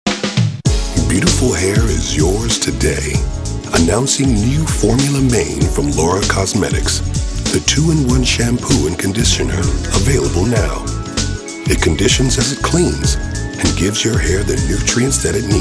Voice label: US Male; English US